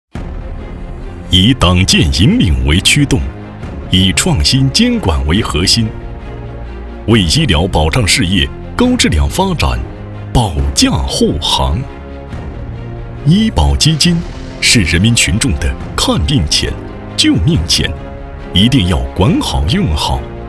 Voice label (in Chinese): 男 党建汇报类; 抚州医保局